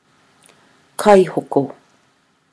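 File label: "kaihoko", Maori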